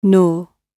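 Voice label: "nor", British English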